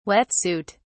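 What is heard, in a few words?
"wet suit", British English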